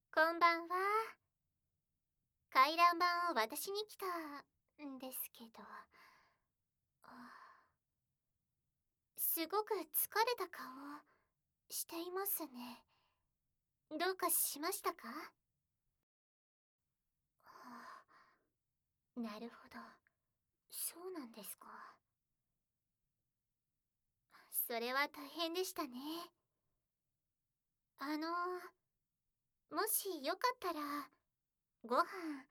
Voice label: お姉さんのささやき耳舐めといたずら乳首責め手コキ, ASMR

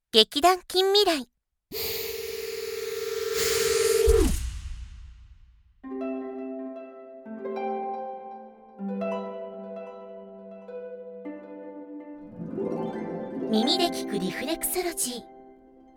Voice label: タイトルコール; イヤーキュア

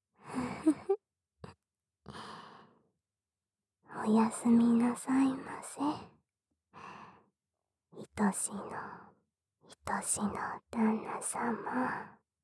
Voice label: 寝息ループ前「おやすみなさい」

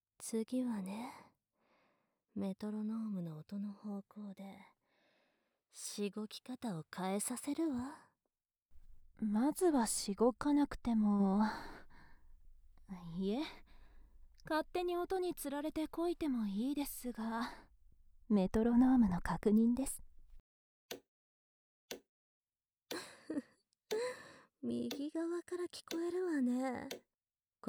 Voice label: 左右メトロノーム&変則リズムメトロノーム, ASMR; オナサポ; 女教師達にマゾバレしてはいけない絶対射精禁止のメトロノームリズムオナサポ♪

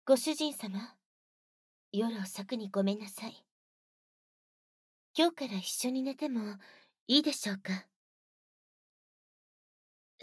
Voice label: 添い寝で密着耳舐め乳首射精, ASMR

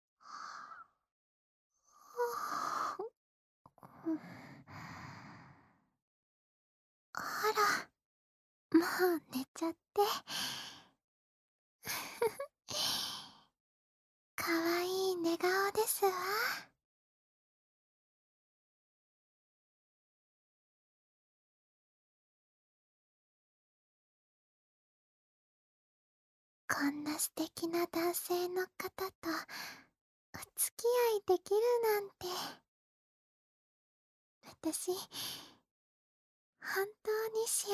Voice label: 添い寝, ASMR; いっしょぐらし ～お嬢様彼女編～

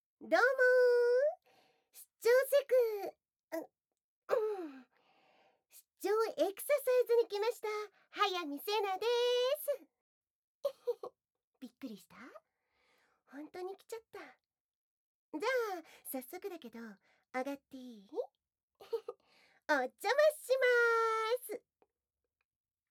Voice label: 耳舐め乳首いじり（効果音なし）, ASMR; 出張セクササイズ; 早漏改善★射精我慢セクササイズ; KU100

